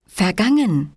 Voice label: german elapsed; samsung YP-U5; Audio User Interface

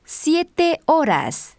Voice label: spanish 9 hour; samsung YP-U5; Audio User Interface

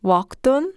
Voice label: Portuguese walkathon; samsung YP-U5; Audio User Interface

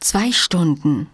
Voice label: german 5 hour; samsung YP-U5; Audio User Interface

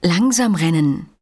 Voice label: german run slow; samsung YP-U5; Audio User Interface

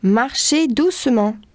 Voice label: french walk slow; samsung YP-U5; Audio User Interface

